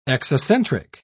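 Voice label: "exocentric", British English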